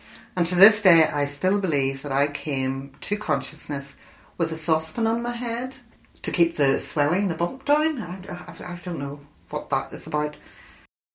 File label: PORTADOWN FEMALE aged 58